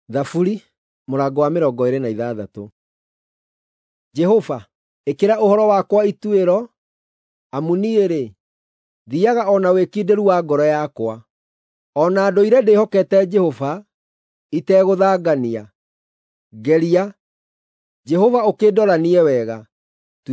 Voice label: psalms, gikuyu drama; bible